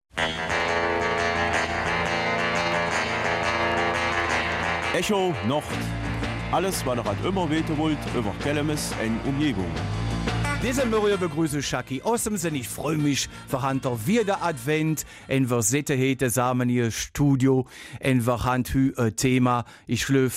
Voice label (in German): Kelmiser Mundart; Dinner in the Dark